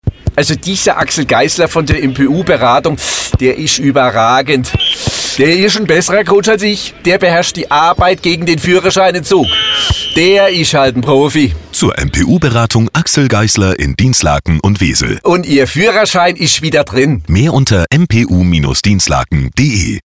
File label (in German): Radiospot; MPU Beratung